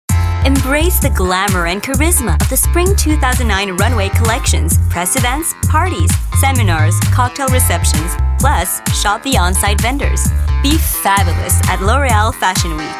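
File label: American English, Commercial; GoLocalise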